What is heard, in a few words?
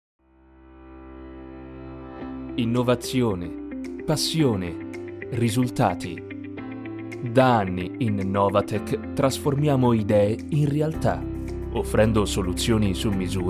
Corporate